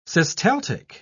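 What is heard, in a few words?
"systaltic", British English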